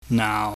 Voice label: "naau", pingyam